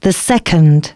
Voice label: announcements female